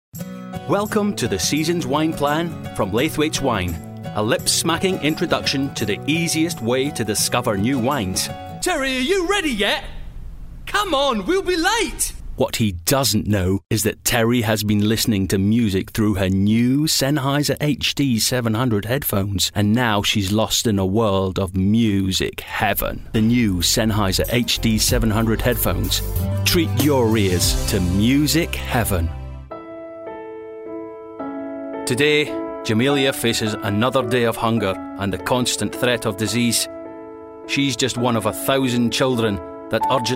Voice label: commercial